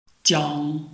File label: "jiang1", Chinese